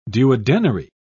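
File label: "duodenary", British English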